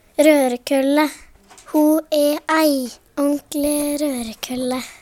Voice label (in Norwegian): rorekolle, Numedalsmål; Ho æ ei årntle rørekølle.